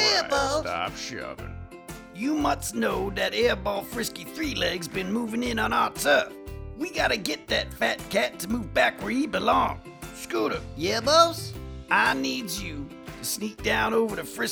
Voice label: Animation Reel